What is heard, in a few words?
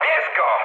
attack position mood high, speech pol